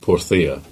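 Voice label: "St Ives" Cornish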